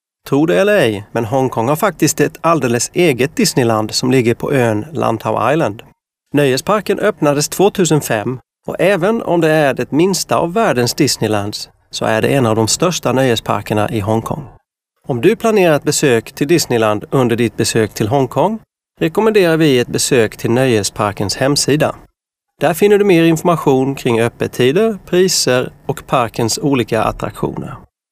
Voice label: Male Swedish Other Projects